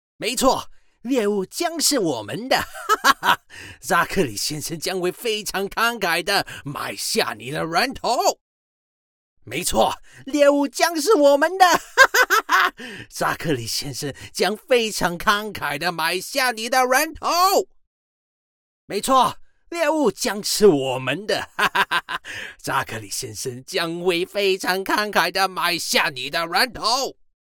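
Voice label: Characters, Chinese; GoLocalise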